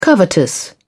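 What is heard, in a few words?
"covetous", British English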